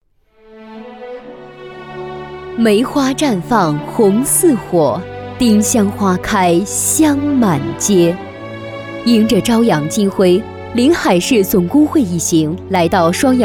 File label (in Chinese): 女 党员活动宣传片